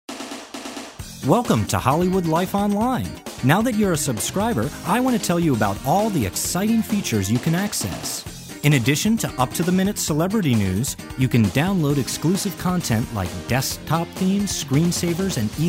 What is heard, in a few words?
narrative